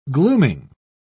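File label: "glooming", English, British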